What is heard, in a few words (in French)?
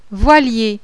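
"VOILIER", French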